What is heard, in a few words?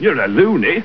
male taunt; starsiege